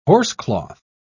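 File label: "horsecloth", British English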